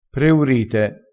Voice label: "preurite", crespinese

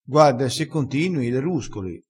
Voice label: "ruscola", crespinese

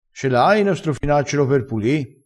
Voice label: "strofinacciolo", crespinese

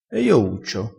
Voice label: "cuccia", crespinese

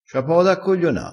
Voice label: "cogliona", crespinese

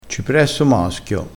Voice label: "cipresso maschio", crespinese

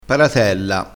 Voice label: "paratella", crespinese

